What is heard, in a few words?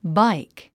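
"bike", English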